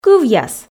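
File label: "кывъяс", Komi, female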